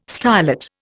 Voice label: "stylet", Indian

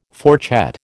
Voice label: "fourchette", American

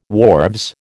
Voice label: "wharves", American

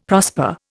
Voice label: "prosper", Indian; Dictionary

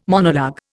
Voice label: "monologue", Indian

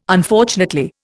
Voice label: "Unfortunately", Indian

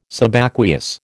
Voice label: "Subaqueous", American

